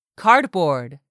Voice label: "CARDBOARD", English; 3000 words